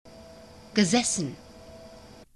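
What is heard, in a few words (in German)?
"gesessen", German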